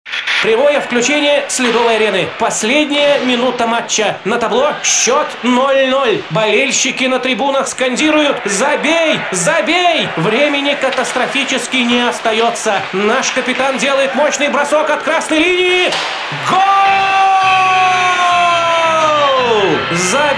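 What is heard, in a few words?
sports commentator voice; ozerov n n (sportivnyij kommentator)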